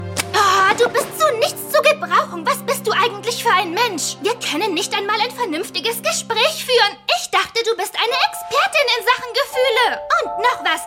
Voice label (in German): Synchron, frauen; VioletGarden